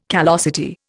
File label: "callosity", Indian